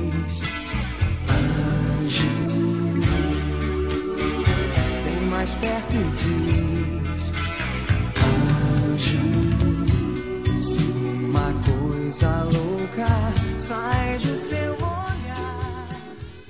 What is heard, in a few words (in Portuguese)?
ANIV. GERAL-F, Telemensagens; Aniversário Infantil